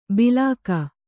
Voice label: "ಬಿಲಾಕ", Kannada

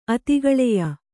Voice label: "ಅತಿಗಳೆಯ", Kannada

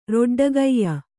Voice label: "ರೊಡ್ಡಗೈಯ", Kannada

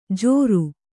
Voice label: "ಝೋರು", Kannada

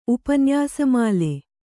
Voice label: "ಉಪನ್ಯಾಸಮಾಲೆ", Kannada

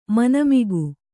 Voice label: "ಮನೆಮಗ", Kannada

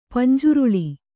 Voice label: "ಪಂಜ್ರೊಳ್ಳಿ", Kannada

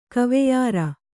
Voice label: "ಕವೆಯರ", Kannada

